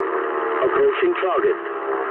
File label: eng near waypoint mood low, speech eng